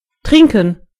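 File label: drinking, German voices words; gcompris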